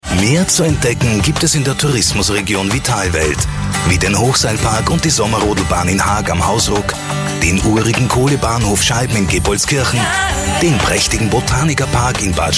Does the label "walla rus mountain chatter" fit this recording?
No